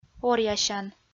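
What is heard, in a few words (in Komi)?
"оръясян", Komi, female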